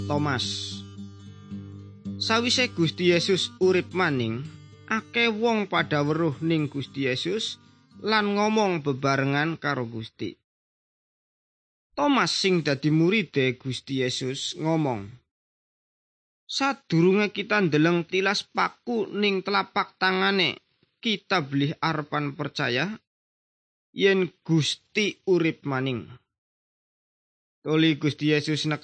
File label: good news jawa indramayu, javanese bible